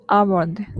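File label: "almond", Japanese, female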